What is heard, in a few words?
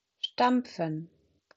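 "stampfen", German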